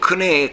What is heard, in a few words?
"kune", minamiyamagata